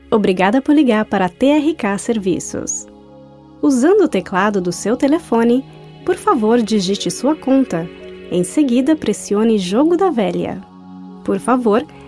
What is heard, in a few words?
Brazilian Portugese